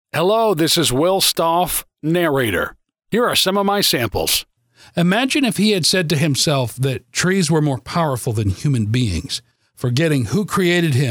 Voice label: Narration